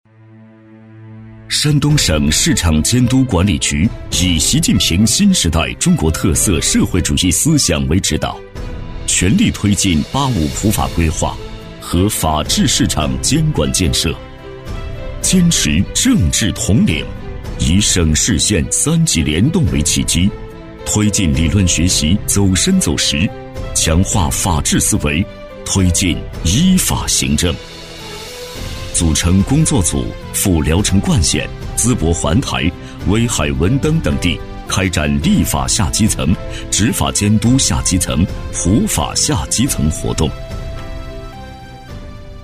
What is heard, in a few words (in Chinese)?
男-党建 市场监管-严肃厚重; 党建专题配音